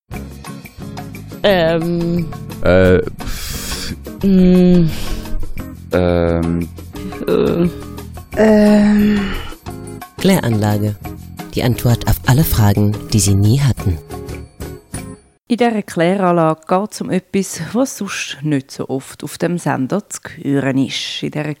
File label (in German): werbung; stadtfilter klaranlage